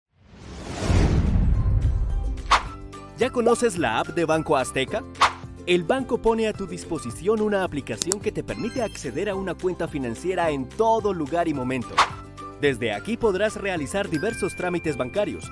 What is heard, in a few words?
spanish latin american explainer videos